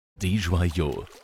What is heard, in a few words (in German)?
aussprache male voice